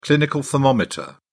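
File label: "clinical thermometer", British English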